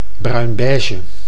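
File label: "bruinbeige", Dutch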